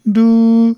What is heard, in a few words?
eg syllables "du"